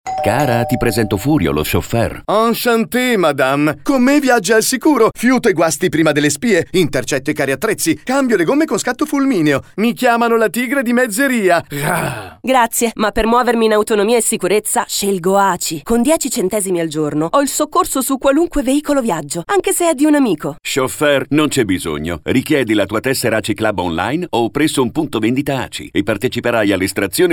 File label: spot radio; ACI; CHAUFFEUR